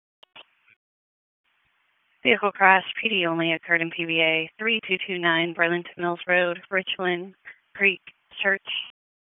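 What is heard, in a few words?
Wake Forest Police Dispatch, p25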